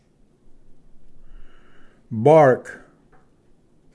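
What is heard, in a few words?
"bark", English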